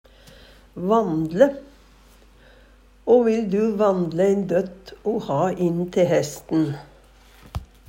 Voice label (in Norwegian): vandle, Numedalsmål; Vil du vandle ein døtt o ha inn te hesten?; Verb